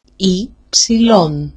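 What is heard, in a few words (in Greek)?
"upsilon", Greek; alphabet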